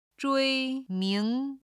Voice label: "zhui1 ming2", Chinese